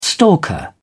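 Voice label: "stalker", British English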